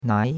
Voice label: "nai2", chinois; Cours-de-Chinois